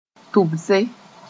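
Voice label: "tʋbse", Mooré; to spit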